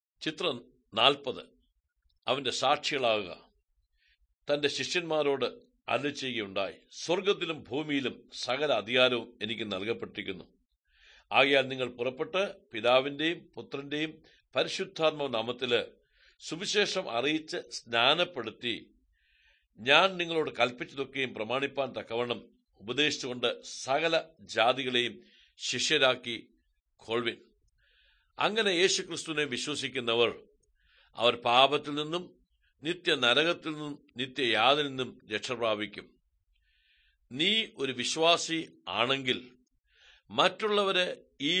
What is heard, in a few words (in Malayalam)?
good news malayalam, drama; bible; world bible translation center nt drama